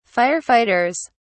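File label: "firefighters", British English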